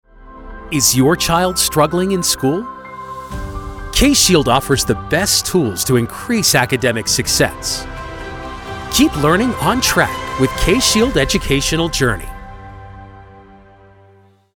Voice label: TV Werbespots Online Werbespots; NATIVE PROFISPRECHER

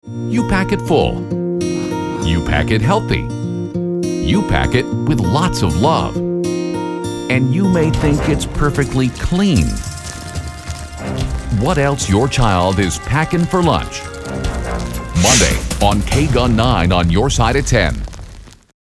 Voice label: Habillage Vorschauvideos; Jugendfrei; NATIVE PROFISPRECHER

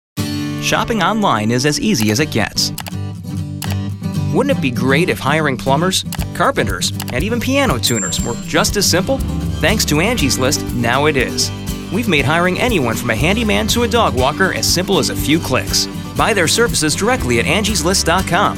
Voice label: TV Werbespots; Jugendfrei; NATIVE PROFISPRECHER

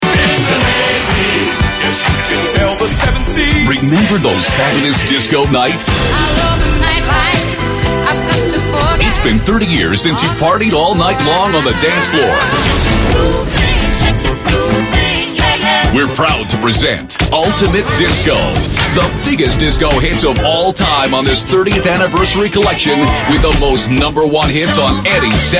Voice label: TV Werbespots Jugendfrei; NATIVE PROFISPRECHER

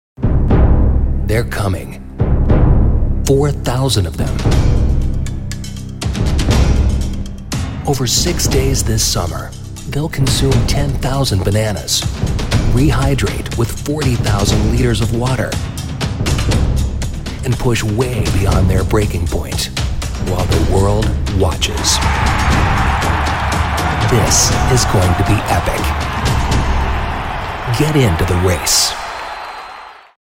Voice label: TV Werbespots; Jugendfrei; NATIVE PROFISPRECHER

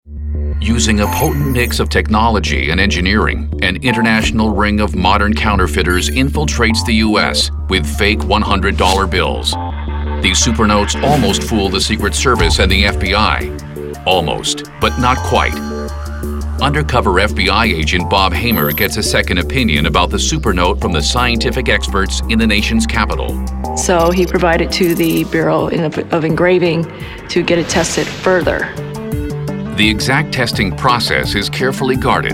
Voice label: Dokumentarfilm Erzahler; Jugendfrei; NATIVE PROFISPRECHER

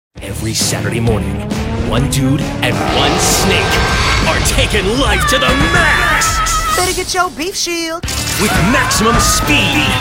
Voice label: Habillage Vorschauvideos Teenagerpublikum; NATIVE PROFISPRECHER

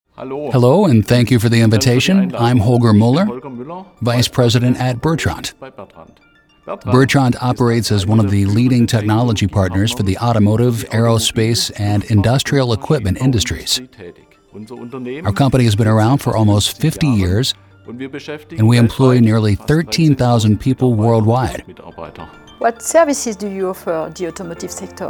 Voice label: Dokumentarfilm; NATIVE PROFISPRECHER

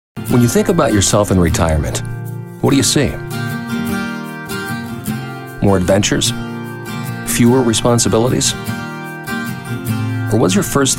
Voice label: TV Werbespots; Jugendfrei; NATIVE PROFISPRECHER

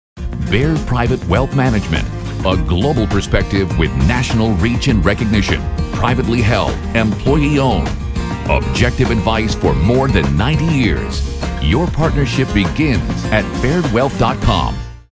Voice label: Imagefilme Profi Publikum; NATIVE PROFISPRECHER

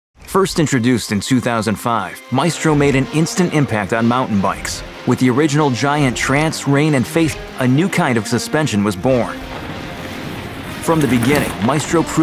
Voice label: Produktvideos Breites Publikum; NATIVE PROFISPRECHER

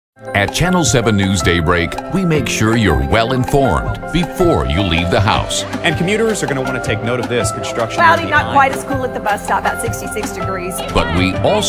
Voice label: Habillage Vorschauvideos; Jugendfrei; NATIVE PROFISPRECHER

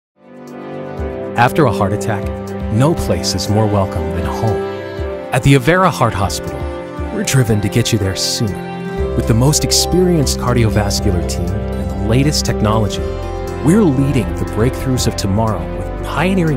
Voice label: TV Werbespots Online Werbespots; NATIVE PROFISPRECHER

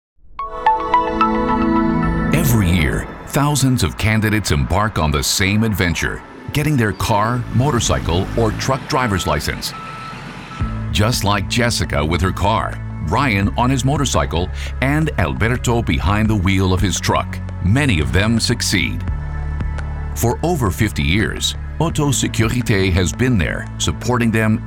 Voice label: Imagefilme Breites Publikum; NATIVE PROFISPRECHER

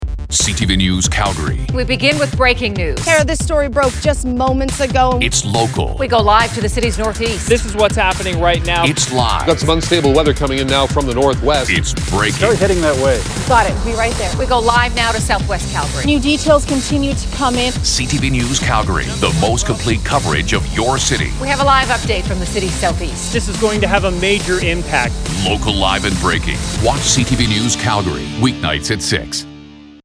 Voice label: Habillage Vorschauvideos

